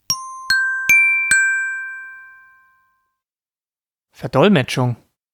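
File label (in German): "Verdolmetschung", German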